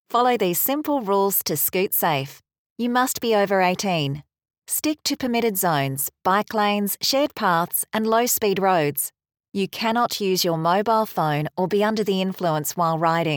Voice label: english australian e-learning